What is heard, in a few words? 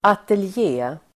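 "ateljé", Swedish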